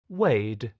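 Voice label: "weid", Proto-Indo-European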